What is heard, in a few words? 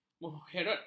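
"mengherotkan", Malay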